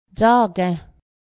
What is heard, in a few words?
out, Cayuga